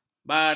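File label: "baran", Malay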